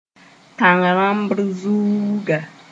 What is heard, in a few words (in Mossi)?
"tãng-rãmbrzũuga", moore